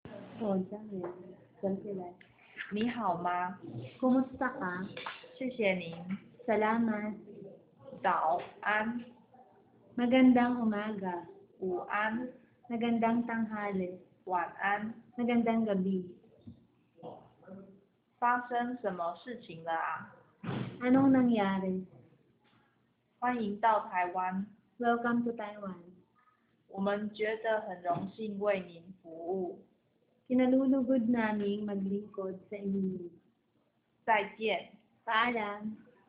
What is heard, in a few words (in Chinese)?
菲律賓語日常問候用語